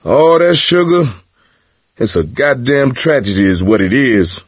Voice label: misc directional, coach, survivor voice